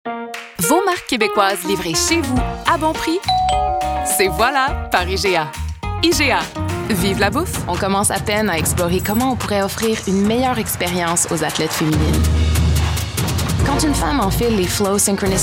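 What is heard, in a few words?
French Canadian Commercial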